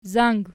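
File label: "zang" nominative, Archi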